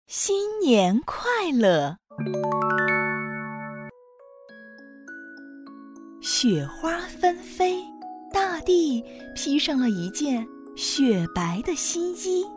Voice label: 儿童 故事

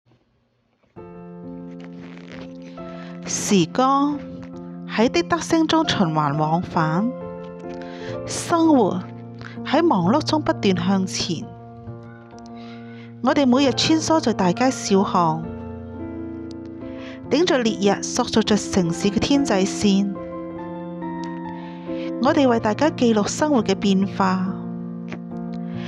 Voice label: 旁白粤语女声版

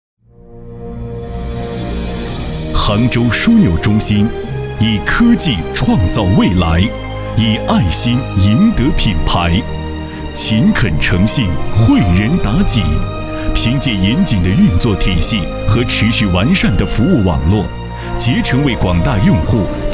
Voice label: 杭州样音

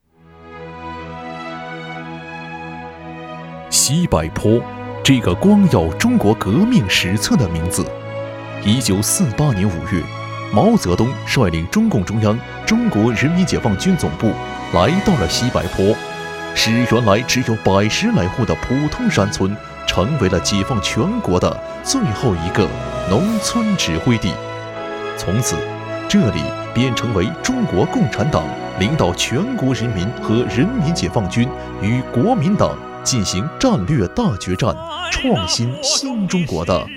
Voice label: 纪录片革命历史; 西柏坡

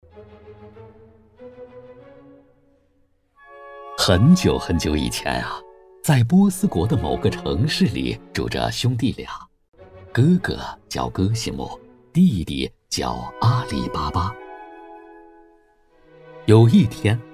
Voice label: 特殊题材儿童故事; 阿里巴巴

